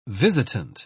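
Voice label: "visitant", English, British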